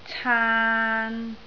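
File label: "caan3", Cantonese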